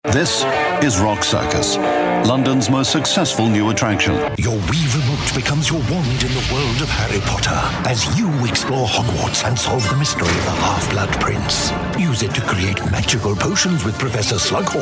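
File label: British English commercials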